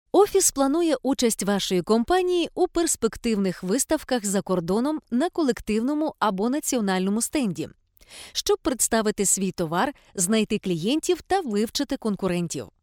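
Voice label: ukrainian voiceover locutora ucrania, Ukrainian female voice talent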